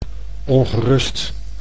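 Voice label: "ongerust", Dutch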